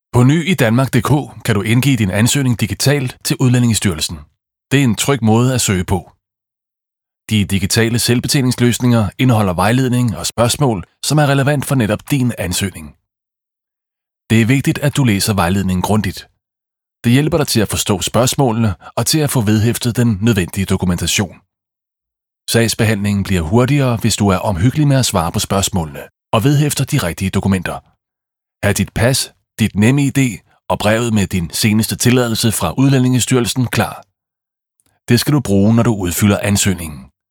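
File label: Danish Audiobook Male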